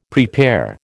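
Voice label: "prepare", American English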